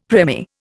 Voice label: "premier", Indian; Dictionary

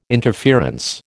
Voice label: "interference", American

